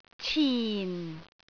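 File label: "cin4", Cantonese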